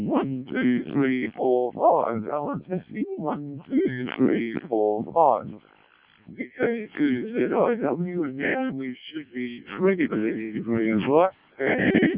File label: decoded speech, parrot